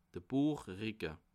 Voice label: "buechricke", alsace

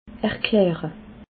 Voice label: "erklare", alsace

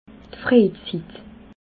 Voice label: "frejzitt", alsace

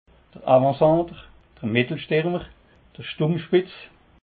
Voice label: "avantcenter", Alsace

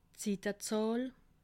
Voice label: "sittezahl", alsace

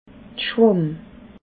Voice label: "schwamm", alsace

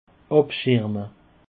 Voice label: "abscherme", Alsace

